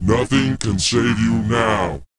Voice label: pitch low; ILIO; Vocal Planet